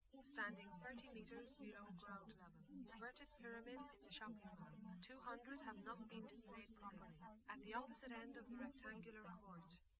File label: female liverec 130ms 5cm sim